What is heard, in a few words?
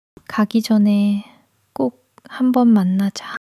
sadness